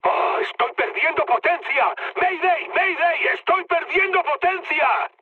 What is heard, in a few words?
damaged engine, mood high, speech; War Thunder